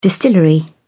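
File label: "distillery", British English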